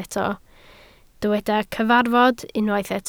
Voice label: "cyfarfod", Welsh

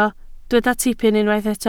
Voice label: "tipyn", Welsh

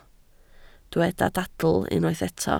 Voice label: "dadl", Welsh

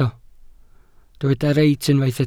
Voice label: "reit", Welsh

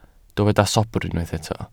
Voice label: "sobr", Welsh

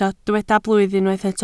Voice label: "blwydd", Welsh

